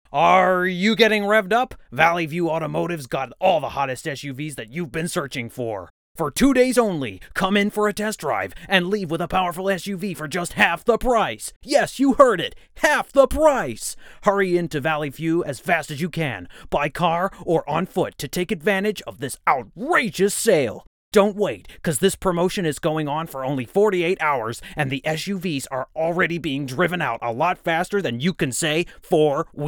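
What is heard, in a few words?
American Car Salesman Commercial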